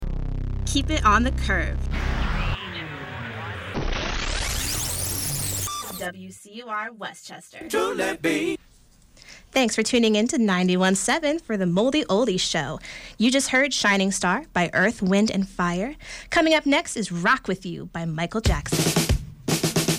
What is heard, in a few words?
Air Check Mixdown